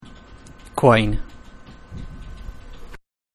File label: "quine", Scots